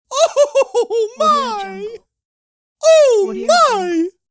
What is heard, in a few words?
female comical oh my